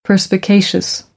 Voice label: "perspicacious", American English; ɝː - ɜː

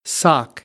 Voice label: "sock", American, English; ɑː - ɒ

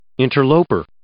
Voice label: "interloper", English

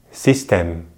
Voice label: "système", French; easypronunciation; Consonantes en francés

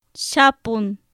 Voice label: "xapun", Popoloca; jabón

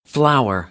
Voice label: "flower", American; ɚ - əɹ

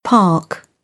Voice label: "park", British English

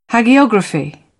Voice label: "hagiography", British; English; ɑː - ɒ

